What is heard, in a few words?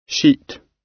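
"sheet", British English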